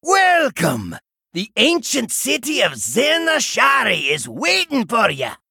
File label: Innkeeper Male Dwarf Greeting